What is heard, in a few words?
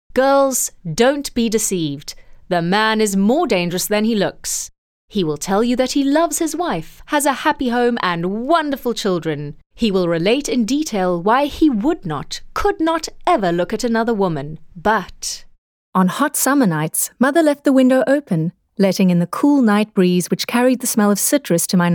english south african audiobooks